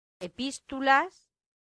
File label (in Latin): "epistulas", latin; santillana